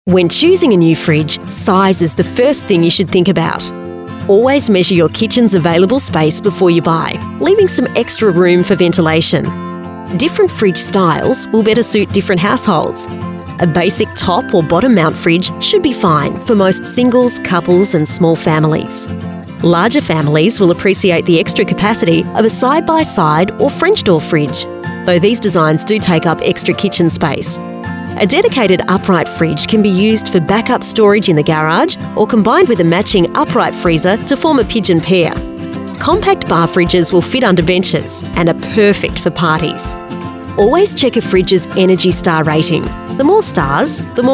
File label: female talent corporate